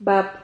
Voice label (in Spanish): "bap", Silabas